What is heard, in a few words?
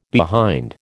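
"behind", American English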